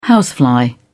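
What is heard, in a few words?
"housefly", British English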